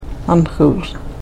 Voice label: "aanxus", karuk